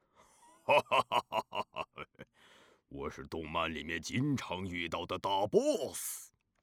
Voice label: 男 角色 boss男

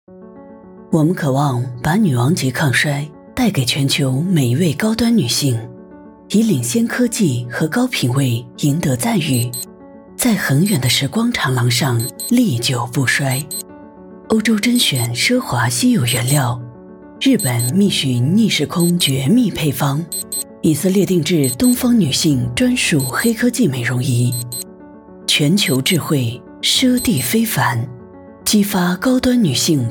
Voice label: 女 广告 大气成熟高端化妆品; Beloved-queen

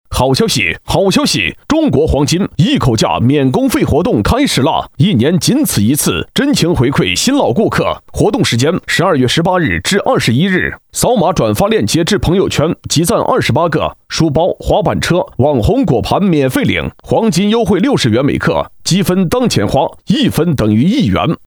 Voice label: 男 促销 中国黄金 干声

